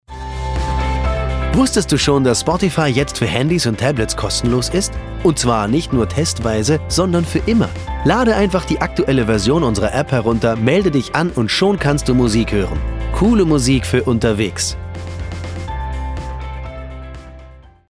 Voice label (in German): Desktop announce; LOFT VOICES